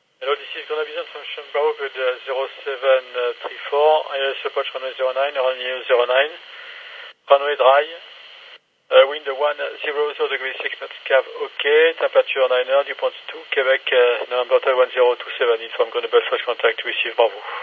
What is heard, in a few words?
ATIS; LFLS